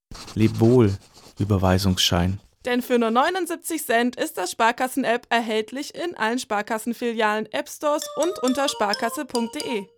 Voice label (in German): Werbung Reminder; Sparkasse